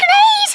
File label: "grenade", Scouser